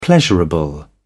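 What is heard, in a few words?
"pleasurable", British English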